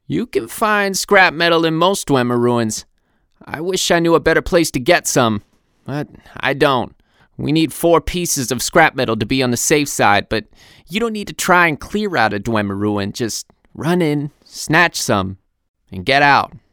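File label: woodelf male voice over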